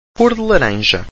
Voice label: "cor de laranja", Portuguese

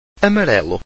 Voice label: "amarelo", Portuguese

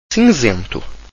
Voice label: "cinzento", Portuguese

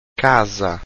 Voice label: "casa", Portuguese

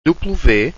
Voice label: "dupluveh", Portuguese

